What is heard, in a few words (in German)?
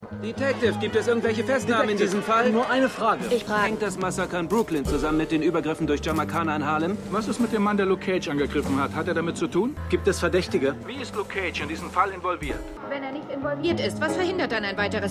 Reporter; Div Reporter; Luke Cage